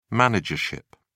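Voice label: "managership", British English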